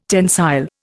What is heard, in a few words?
"tensile", Indian